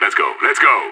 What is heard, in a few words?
radio lets go, player vo; idf